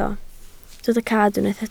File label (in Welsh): "cad", Welsh